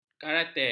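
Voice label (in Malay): "karate", Malay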